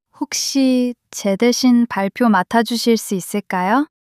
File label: shy